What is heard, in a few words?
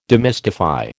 "demystify", American